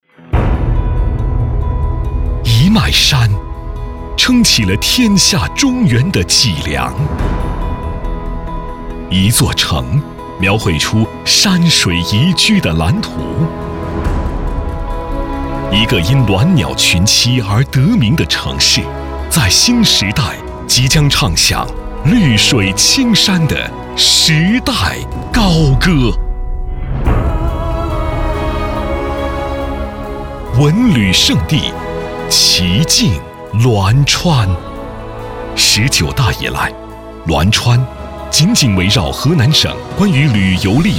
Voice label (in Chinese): 宣传片大气宣传片城市规划片